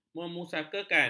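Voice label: "memusakakan", Malay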